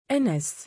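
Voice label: "2anas" male, Arabic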